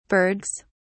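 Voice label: "bergs", English, British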